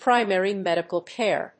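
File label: "PRIMARY MEDICAL CARE", English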